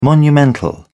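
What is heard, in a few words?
"monumental", British English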